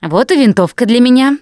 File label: take assault rifle, russian, Survivor Voice Producer